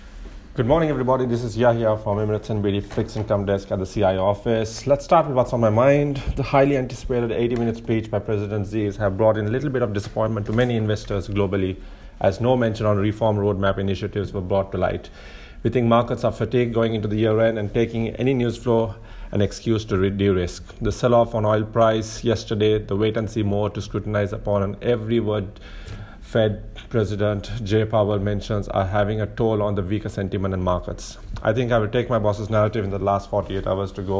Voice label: voice note; fixed income